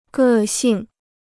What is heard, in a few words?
"个性", Chinese